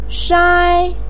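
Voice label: "shai", Chinese pinyin, first tone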